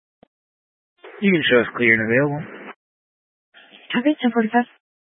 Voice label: Fire Department Dispatch; Grand Junction

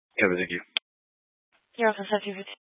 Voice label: Mesa County Sheriff Dispatch

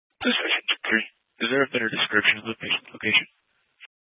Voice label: Fire Department Dispatch, scanner

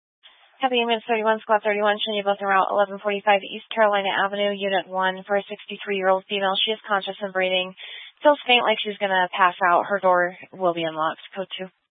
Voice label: Fire Dispatch

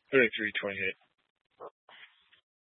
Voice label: Police Department Dispatch, scanner

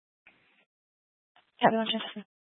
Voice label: Police Department Dispatch, scanner

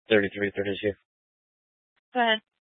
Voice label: Police Dispatch, scanner; Police 1 Dispatch

